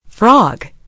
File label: "frog", en US; gcompris